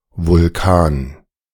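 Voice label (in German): "Vulkan", German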